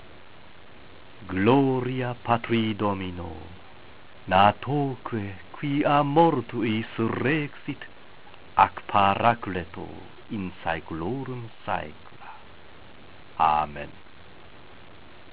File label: Veni recitatio, latin